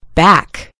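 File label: "back", American English; gcompris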